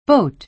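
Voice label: "boat", American English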